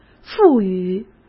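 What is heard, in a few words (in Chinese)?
"赋予", Mandarin Chinese; hsk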